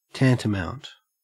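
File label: "tantamount", Australian English; pronunciation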